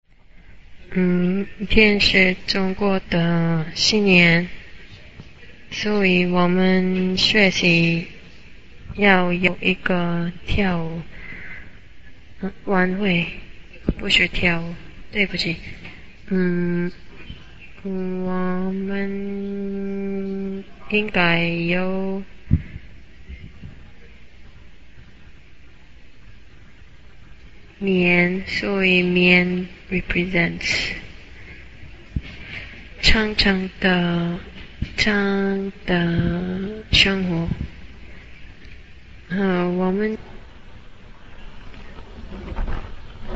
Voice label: Chinese Event Plan

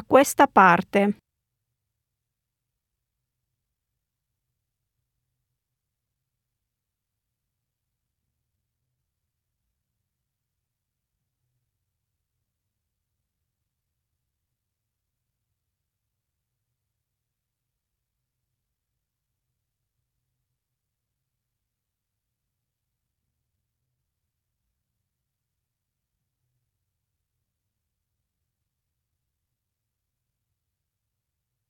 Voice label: italian directions

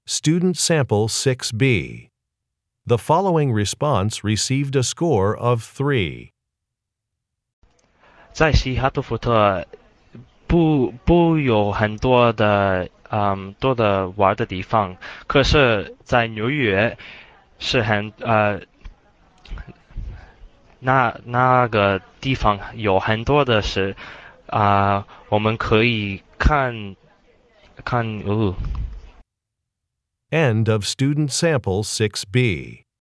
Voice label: chinese conversation

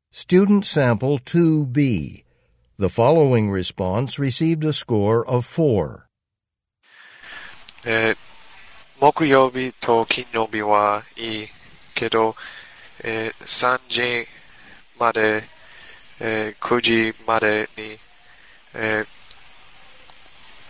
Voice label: japanese convo

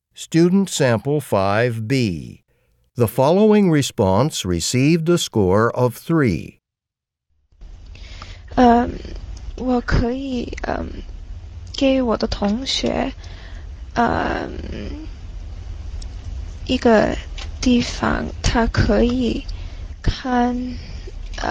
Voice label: chinese conversation

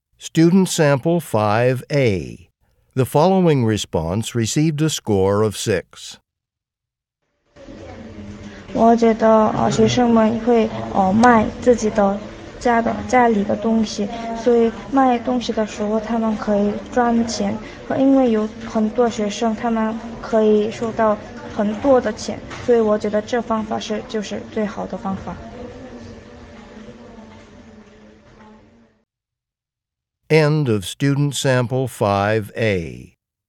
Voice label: chinese conversation

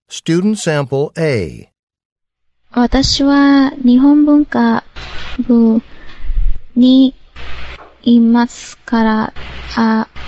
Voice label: japanese conversation

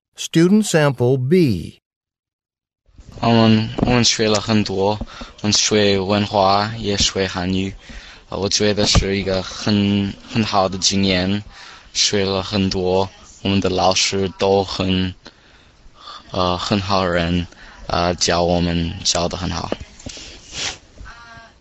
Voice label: chinese conversation

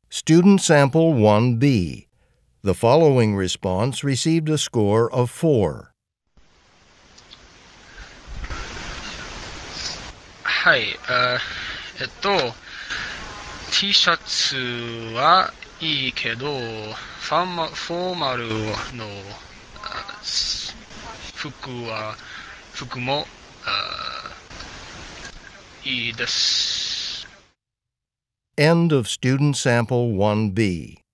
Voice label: japanese conversation

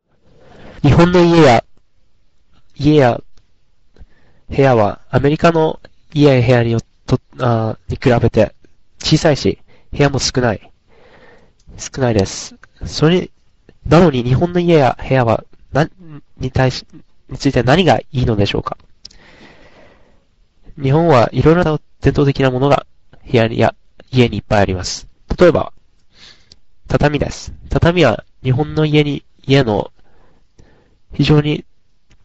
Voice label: Japanese Cultural Perspective Presentation

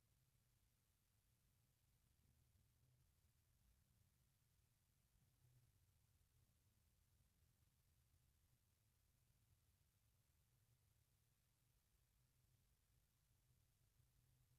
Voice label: spanish directions part b

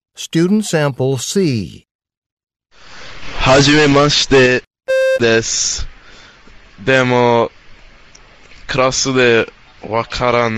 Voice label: japanese conversation

